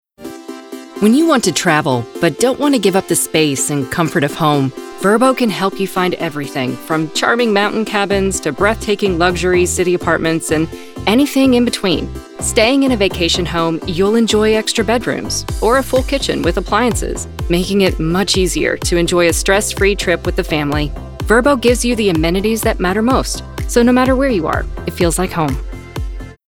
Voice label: Corporate; VRBO